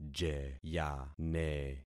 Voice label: "jeyane", concat syllables; Affixing experiment